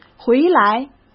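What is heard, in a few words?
"回来", Mandarin Chinese; chinese flashcards; hsk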